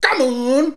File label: "come on", shouts; Best Service; Hyper Dance